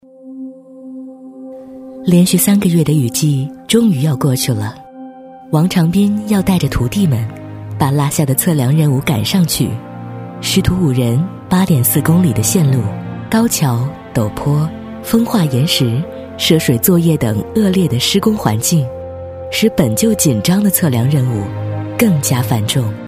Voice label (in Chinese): 人物女 优雅抒情